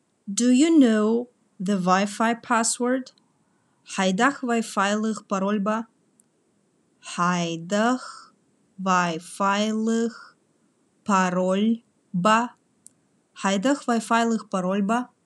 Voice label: Khakas Do you know the Wi-Fi password

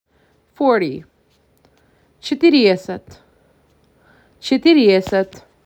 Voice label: MAC 6, macedonian phrasebook

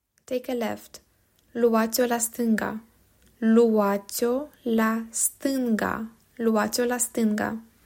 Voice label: "Take a left", Romanian

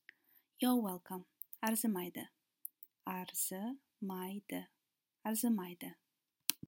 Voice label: Youre welcome, kazakh

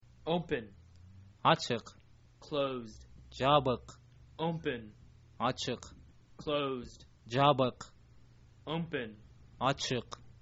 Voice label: open close, kyrgyz

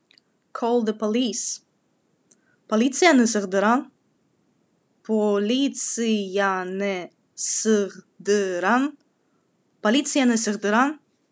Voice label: Khakas Call the police

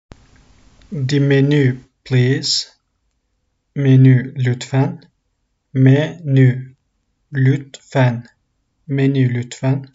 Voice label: the menu please, Turkish; the talking turkish phrasebook

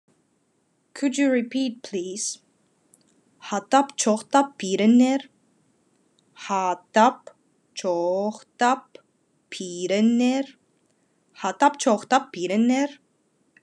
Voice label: Khakas Could you repeat please

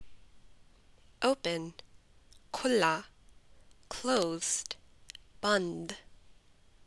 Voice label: Punjabi Open Closed

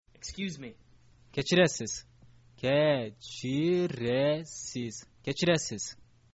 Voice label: excuse me, kyrgyz

